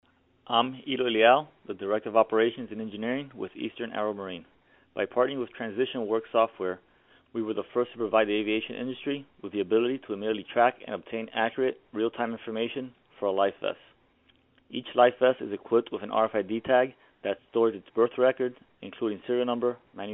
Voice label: audio testimonial